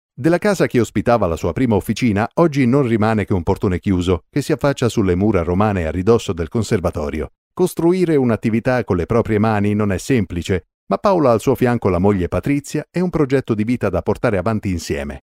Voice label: italian narration